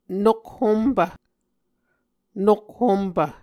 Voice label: "nokhompv", Muscogee